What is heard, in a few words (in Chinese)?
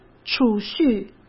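"储蓄", Mandarin Chinese; hsk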